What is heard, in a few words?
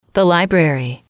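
"the library", English, female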